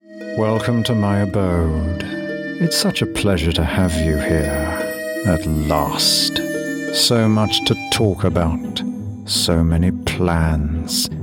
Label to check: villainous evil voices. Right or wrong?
Right